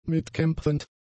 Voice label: "mitkämpfend", German; adjectives

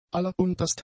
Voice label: "allerunterst", German; adjectives

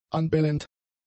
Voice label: "anbellend", German; adjectives

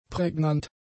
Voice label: "prägnant", German; adjectives

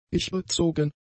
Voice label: "ichbezogen", German; adjectives

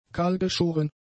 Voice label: "kahlgeschoren", German; adjectives

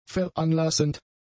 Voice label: "veranlassend", German; adjectives

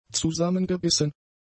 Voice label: "zusammengebissen", German; adjectives

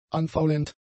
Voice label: "anfaulend", German; adjectives

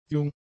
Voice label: "jung", german; adjectives

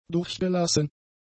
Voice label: "durchgelassen", German; adjectives

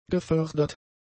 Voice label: "gefördert", German; adjectives

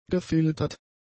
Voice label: "gefiltert", German; adjectives

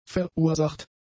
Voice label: "verursacht", German; adjectives

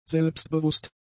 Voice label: "selbstbewusst", German; adjectives

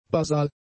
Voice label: "basal", German; adjectives

